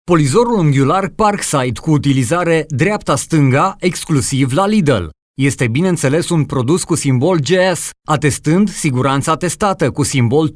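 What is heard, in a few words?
Romanian, male, explainer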